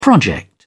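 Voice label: "project", British English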